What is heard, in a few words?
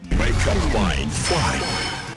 Announcer; JJBA